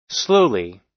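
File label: "slowly", US English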